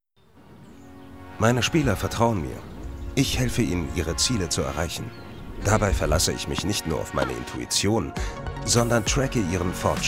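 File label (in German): Imagefilm, Männer; Wingfield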